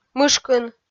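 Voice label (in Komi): "мышкын", Komi, female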